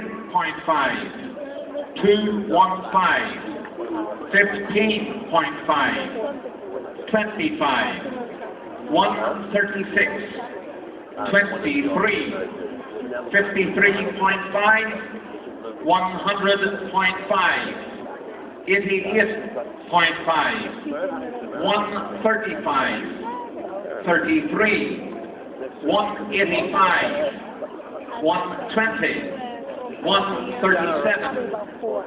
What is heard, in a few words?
IRISH ANNOUNCER; Location: Student Center by the Unispan; chatter